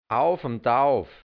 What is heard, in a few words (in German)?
"aufumdauf", hianzisch Mundart, Burgenland; ganz; gänzlich